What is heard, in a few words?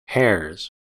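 "hares", US English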